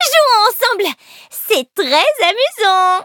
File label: loading, fr; Cookie Run